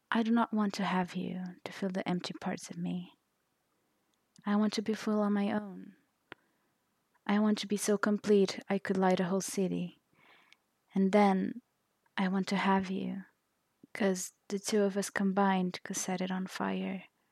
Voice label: poem; Poem by Rupi Kaur